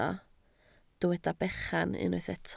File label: "bechan", Welsh